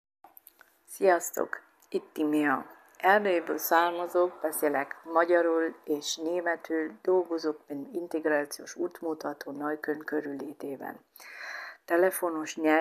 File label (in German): ungarisch